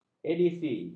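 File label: "edisi", Malay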